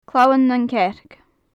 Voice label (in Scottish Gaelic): "clamhan nan cearc", gaelic; Faclan Nàdair